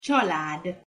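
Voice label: "csalad", Hungarian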